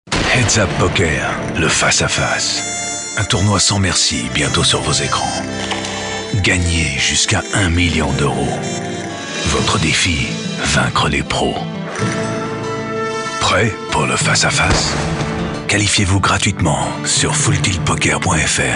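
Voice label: Voix Off Voice Over, bilingue Américain Français; Full Tilt Poker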